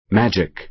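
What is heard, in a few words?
"magic", American English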